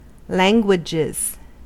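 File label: "languages", American English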